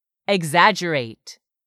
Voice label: "exaggerate", English; 3000 words